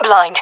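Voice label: blinded, jungle female; vo; agents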